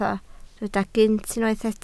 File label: "gynt", Welsh